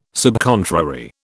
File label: "subcontrary", British